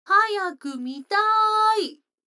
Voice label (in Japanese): 女の子台詞, ASMR